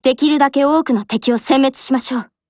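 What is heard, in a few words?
SKILL, Japanese; FAMAS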